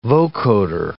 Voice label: "vocoder"